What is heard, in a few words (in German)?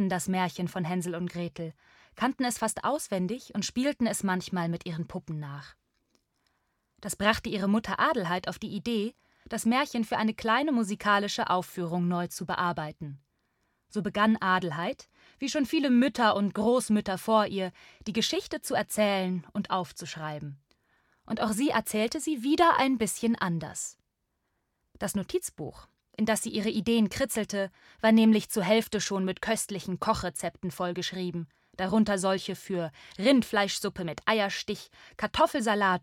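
Audioguide für Kinder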